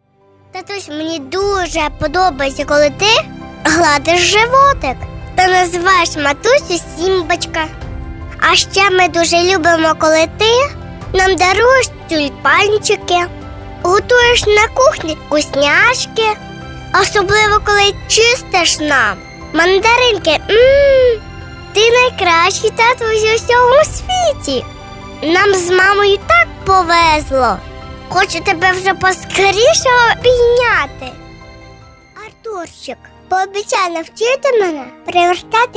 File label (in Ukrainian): ukrayinskoyu; avtorska-pisnya